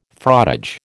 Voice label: "frottage", American

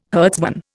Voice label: "herdsman", Indian

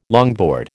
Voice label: "longboard", American

